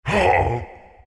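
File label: pain, Chinese; dota2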